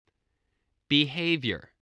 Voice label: "behavior", English

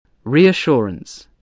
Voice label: "reassurance", english

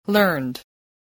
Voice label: "learned", English

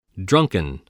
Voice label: "drunken", English

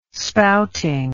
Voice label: "spouting", english

